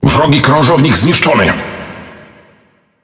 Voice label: Speech Destroy Enemy Cruiser, PL; World Of Warships